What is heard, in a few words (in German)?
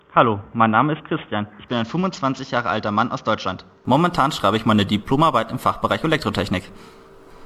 GERMAN COMPARATIVE